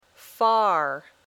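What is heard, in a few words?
"far", English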